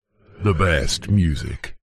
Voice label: radio prod male voice says the best music processed